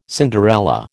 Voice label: "Cinderella", American

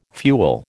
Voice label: "fuel", American

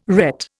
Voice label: "ret", Indian

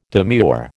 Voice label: "demure", American

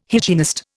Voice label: "hygienist", Indian

